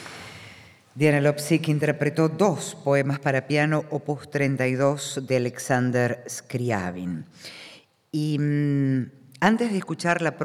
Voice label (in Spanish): locutora